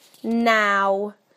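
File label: "now", British English; PictureDictionary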